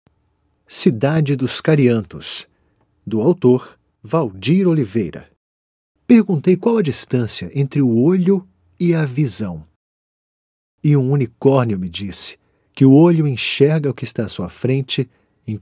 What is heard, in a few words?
Portuguese Brazilian audiobooks